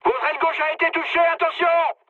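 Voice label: left wing, mood high, French; War Thunder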